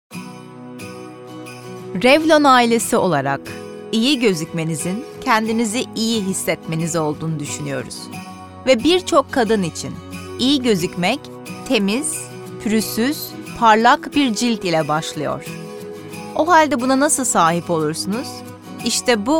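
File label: Turkish General; GoLocalise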